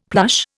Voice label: "plush", Indian; Dictionary

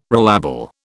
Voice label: "relabel", British

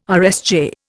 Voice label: "RSJ", Indian

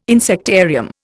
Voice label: "insectarium", Indian

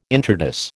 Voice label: "intrados", American

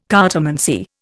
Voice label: "cartomancy", Indian

